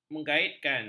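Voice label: "mengaitkan", Malay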